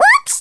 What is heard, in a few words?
"oops", Italian; Worms Armageddon